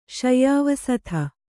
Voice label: "ಶಯ್ಯಾವಸಥ", Kannada